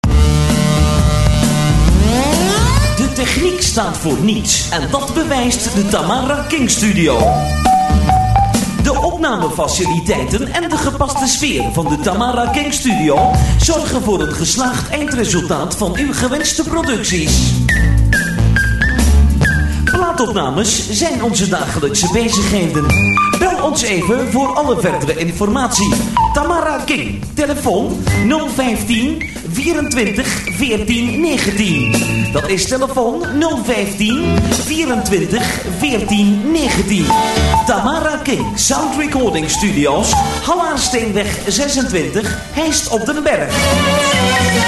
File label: commercial